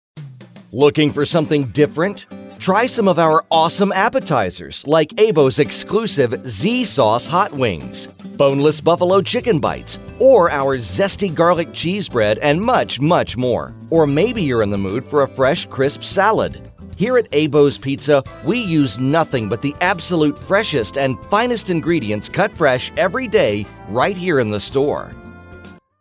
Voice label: pizza company, malevoices; audio on hold